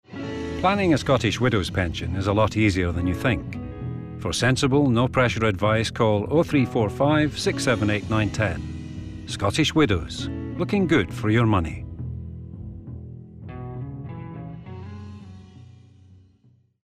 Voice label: SHOWREEL VO; SCOTTISH WIDOWS

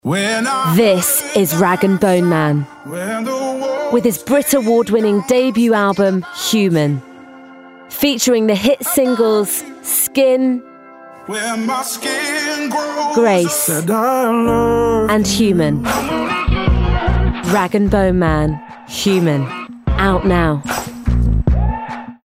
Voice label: Commercial Music

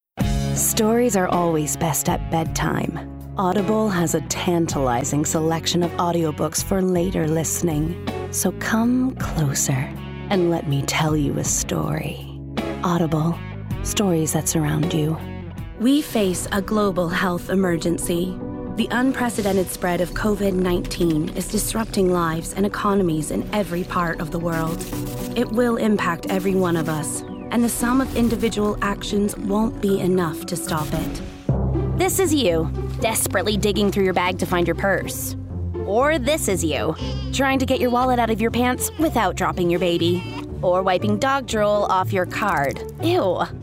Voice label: Commercials Montage; Actress

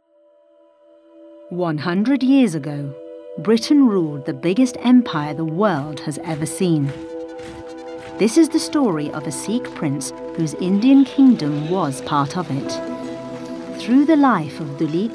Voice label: Documentary; DuleepSingh

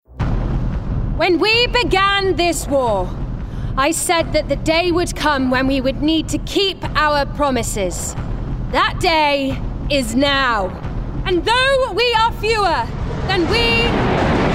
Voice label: Gaming Strong Leader RP

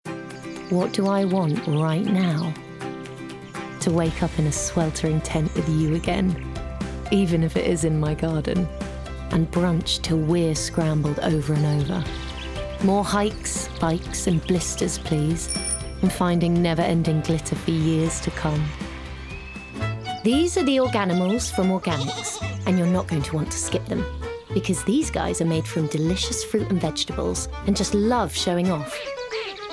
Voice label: Commercials Montage; Actress

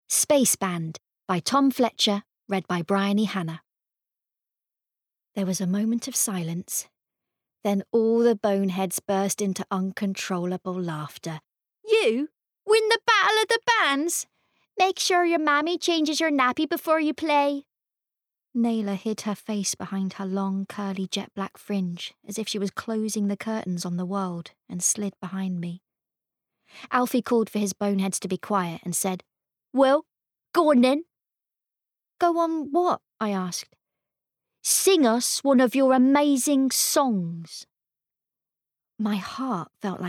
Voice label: Bookread; SpaceBand

